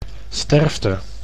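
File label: "sterfte", Dutch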